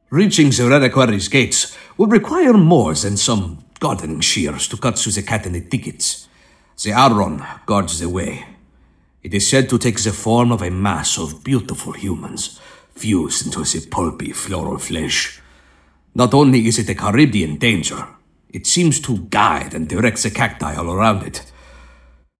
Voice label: Narration